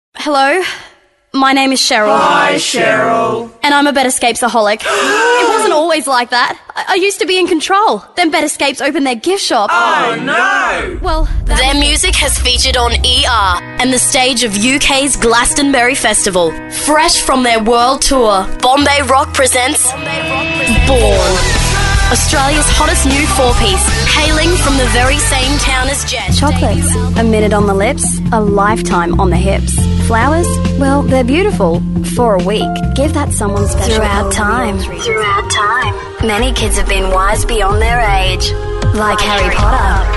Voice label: female talent retail